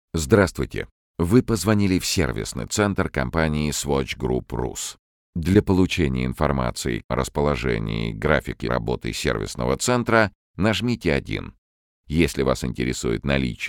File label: ivr voice; swatch